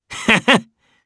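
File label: Vox Happy Japanese; kingsraid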